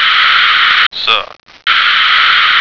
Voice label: "sun", American